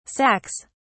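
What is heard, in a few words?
"sacks", English, British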